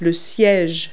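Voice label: "seat", English word; Multi-Lingual Talking Picture Dictionary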